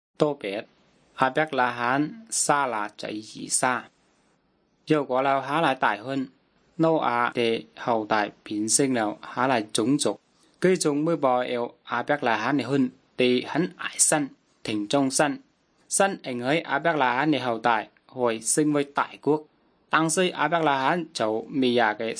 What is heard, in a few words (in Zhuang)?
good news zhuang nanning fushu